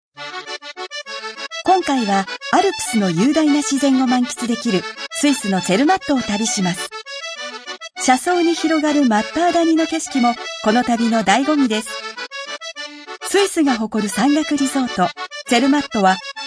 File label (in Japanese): レポーター VO風ナレ 中音; 「スイス紀行」